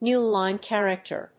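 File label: "newline character", English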